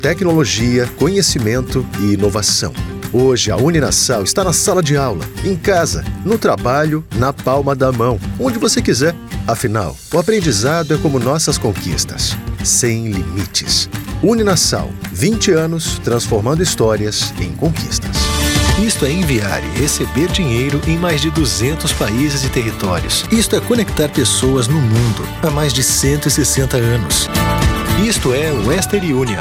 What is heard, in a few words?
Brazilian Portuguese; Male